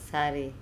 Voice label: "sare", andamanese; sea